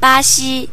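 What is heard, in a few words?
brazil, Spanish; gcompris-data; geography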